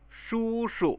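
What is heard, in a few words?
spoken sound "shushu", Chinese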